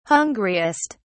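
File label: "hungriest", English, British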